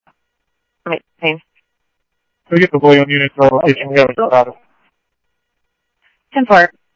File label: Tempe Police, scanner; SimulcastF-Tempe